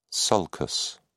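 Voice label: "sulcus", British English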